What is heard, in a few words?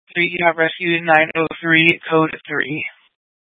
Fire Dispatch; K8 - FDCOM 8 - South; B- Phx Fire and Public Works